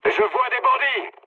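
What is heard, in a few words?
French enemy detected mood med; War Thunder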